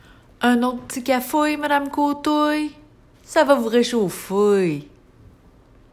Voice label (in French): Accent de la Mauricie É